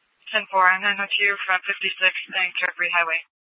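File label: police dispatch, Scottsdale PD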